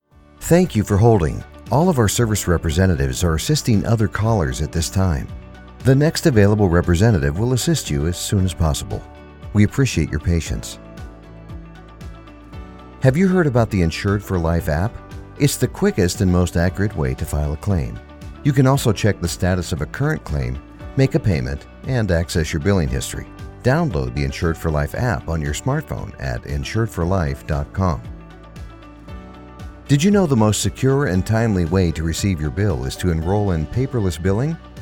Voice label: english american ivr

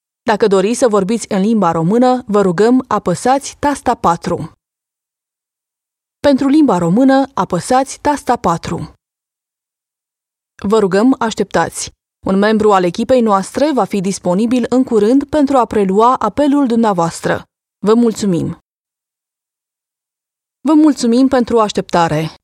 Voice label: romanian ivr

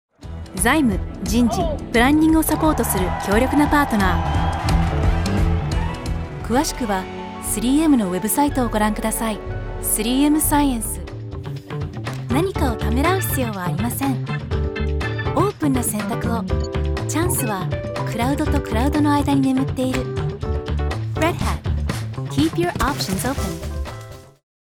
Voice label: japanese commercial

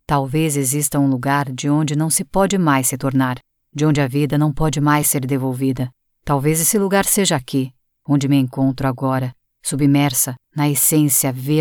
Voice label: portuguese brazilian audiobooks

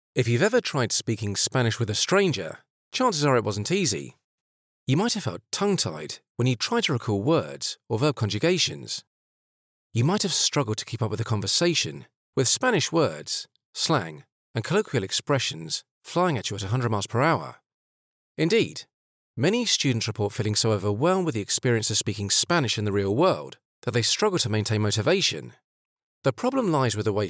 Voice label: english british e-learning

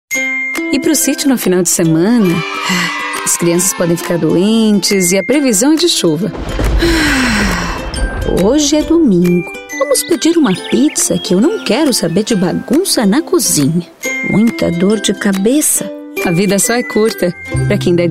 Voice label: portuguese brazilian commercial

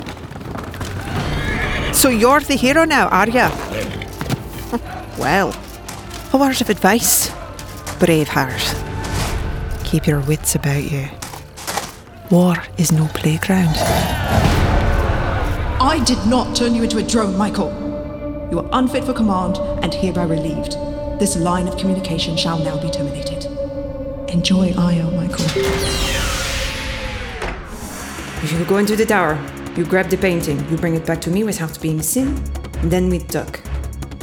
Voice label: english canadian others

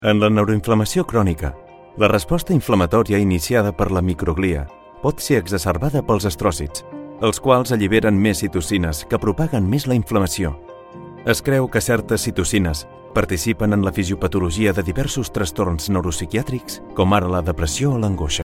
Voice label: catalan medical narration; Spanish and Catalan professional voice-over artist

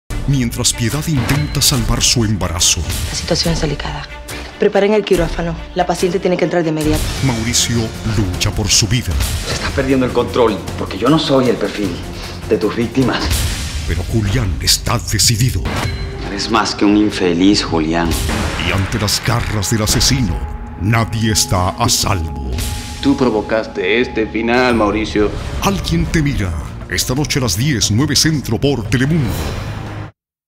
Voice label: spanish latin american tv shows

